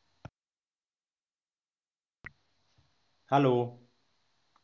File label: headset audio jabra speak